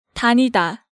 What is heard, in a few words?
"danida", Korean